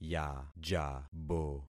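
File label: "yajabo", concatenated syllables; Affixing experiment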